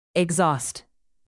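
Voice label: "exhaust", English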